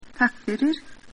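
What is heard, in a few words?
"thanks", Icelandic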